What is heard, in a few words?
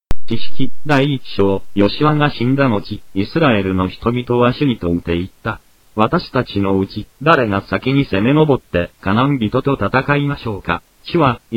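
japanese, bible reading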